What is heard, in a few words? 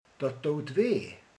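"fáj", Hungarian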